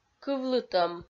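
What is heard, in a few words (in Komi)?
"кывлытӧм", Komi, female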